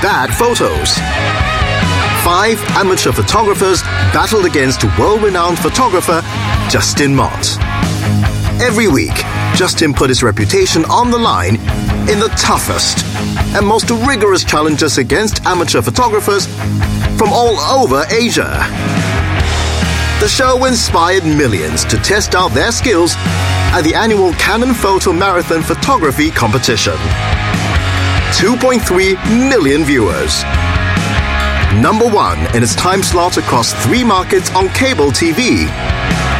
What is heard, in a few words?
Asian English face-off pitch; Canon